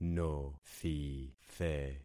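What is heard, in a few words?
"nothithe", concatenated syllables; Affixing experiment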